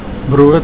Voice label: "brood", Afrikaans

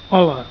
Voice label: "hello", catalan

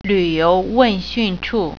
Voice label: tourist info, Mandarin

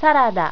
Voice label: "sarada", japanese

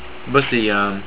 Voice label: BUS STATION, estonian

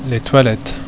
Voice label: "toilette", French

